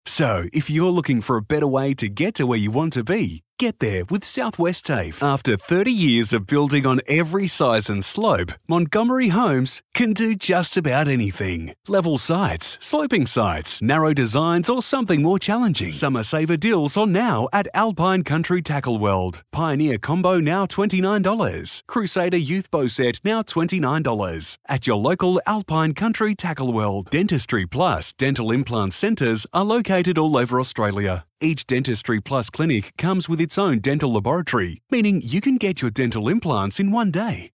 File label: Soft Sell